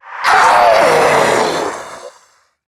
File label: Death, en; Zariman Wraith